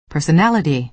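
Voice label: "personality", American English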